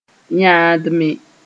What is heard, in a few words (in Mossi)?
"yãndme", moore